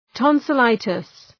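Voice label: "tonsillitis", English; {,tɒnsə’laıtıs}; (Ουσιαστικό)● αμυγδαλίτις● αμυγδαλίτιδα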